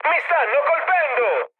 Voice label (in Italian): aircraft damaged, mood high, speech it; War Thunder